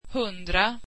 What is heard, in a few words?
swedish one hundred